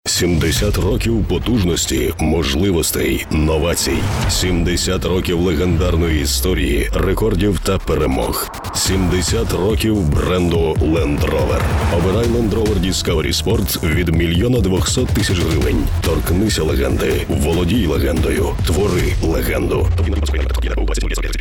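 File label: ukrainian voiceover locutor ucraniano, Ukrainian male voice over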